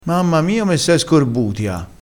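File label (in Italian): "scorbutio", dialetto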